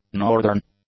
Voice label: "northern", english; adjectives